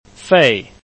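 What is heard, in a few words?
"fei", Chinese, tone 4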